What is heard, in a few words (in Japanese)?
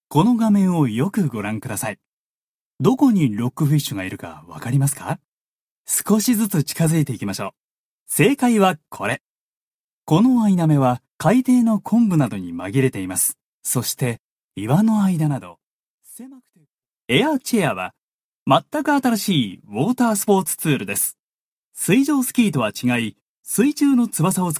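日本語ナレーター 男性; GAIKEI Models & Narrators; 声優事務所時代の様々な仕事によって蓄積されてきた引き出しが、更にこれから活かされる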